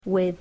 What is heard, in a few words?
"with", English